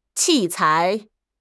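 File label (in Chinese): "器材", Chinese; HSK; HSK6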